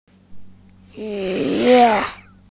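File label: "shi2yue4", female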